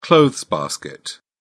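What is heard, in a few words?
"clothes basket", British English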